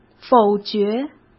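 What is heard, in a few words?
"否决", Mandarin Chinese; chinese-flashcards; hsk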